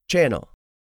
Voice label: "channel", english; 3000 words

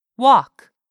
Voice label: "walk", English word; 3000 words

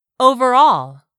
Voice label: "overall", English; 3000 words

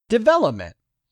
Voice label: "DEVELOPMENT", english; 3000 words

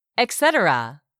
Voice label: "etc", English; 3000 words

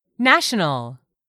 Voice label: "national", English; 3000 words

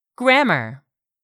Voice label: "GRAMMAR", english; 3000 words

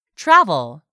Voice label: "TRAVEL", english; 3000 words

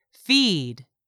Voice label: "FEED", English; 3000 words

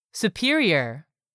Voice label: "superior", English; 3000 words

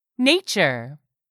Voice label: "nature", English; 3000 words

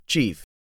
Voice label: "CHIEF", english; 3000 words

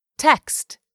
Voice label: "text", english; 3000 words

